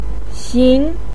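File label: "xin2", Mandarin Chinese, pinyin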